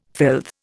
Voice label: "filth", Indian